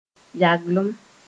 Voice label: "yaglem", Moore